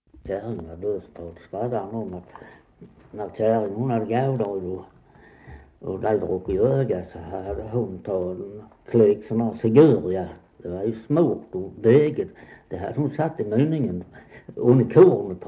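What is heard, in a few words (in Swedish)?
Västra Torup Skåne, dialekter; Folkminnen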